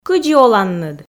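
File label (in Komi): кыдзи оланныд, Komi, female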